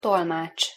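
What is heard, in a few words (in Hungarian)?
"tolmacs", Hungarian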